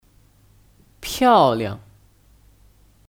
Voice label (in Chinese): "漂亮", Piàoliang; Kata sifat; Cantik